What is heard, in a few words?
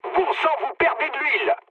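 damaged oil, mood medium, French; War Thunder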